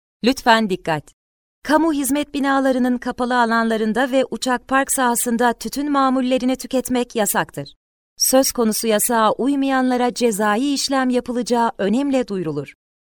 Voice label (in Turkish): sigara yasagi ikaz anonsu seslendirme, acil durum anonsu